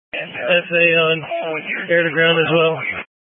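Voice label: Incident Tac 1, Scanner audio recording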